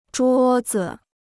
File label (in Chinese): "桌子", Chinese